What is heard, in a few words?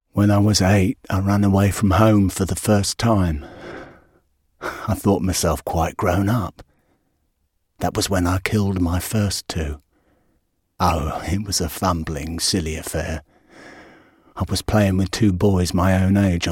drama normal